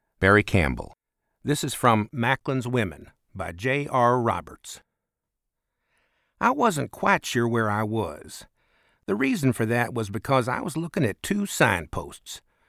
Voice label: Audiobook Western; Western / Dialect